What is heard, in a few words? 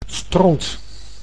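"stront", Dutch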